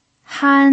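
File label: "han" first tone, pinyin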